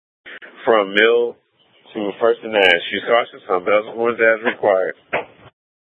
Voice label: Public Transit System Light Rail Ops, scanner